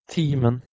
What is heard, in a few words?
"timen", Proto Germanic